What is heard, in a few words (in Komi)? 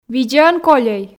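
видзаӧн кольӧй, Komi, female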